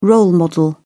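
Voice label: "role model", British English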